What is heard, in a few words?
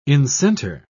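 "incenter", British English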